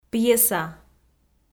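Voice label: "пьеса", Komi, female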